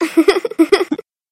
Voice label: monster succubus